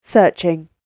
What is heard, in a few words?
"Searching", British Female Voice; Talking Sound Effects; spoken word